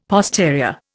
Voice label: "posterior", Indian; Dictionary